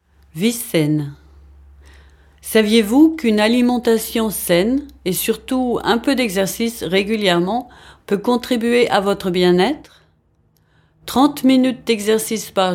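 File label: French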